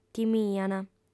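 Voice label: "timiant", Sardinian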